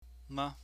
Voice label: "ma", Chinese